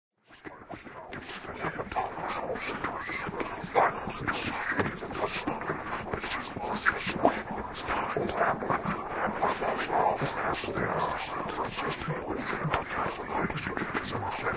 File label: whispers; minecraft; technic